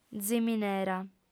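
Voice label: "ziminera", Sardinian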